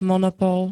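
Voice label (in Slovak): "monopol", Slovak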